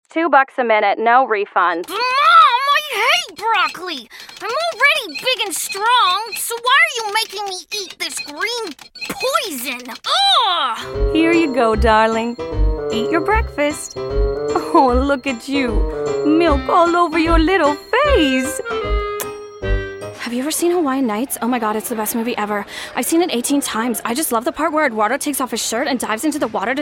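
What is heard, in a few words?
Character female; TaDa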